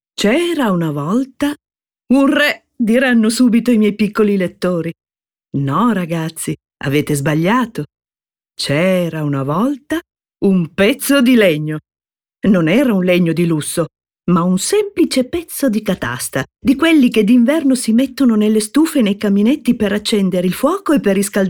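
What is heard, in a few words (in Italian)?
Favola, voci femminili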